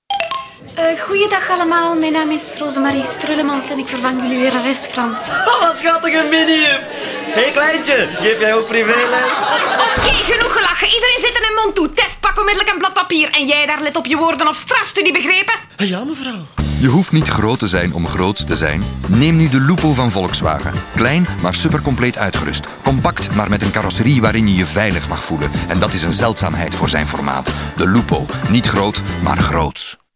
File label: radio; volkswagen